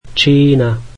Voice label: "China", slovak